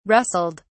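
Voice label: "rustled", British English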